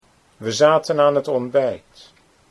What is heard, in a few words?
"we zaten ontbijt", Dutch